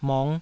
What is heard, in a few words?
"mong5", taiwanese syllables